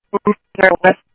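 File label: Sheriff West County, scanner audio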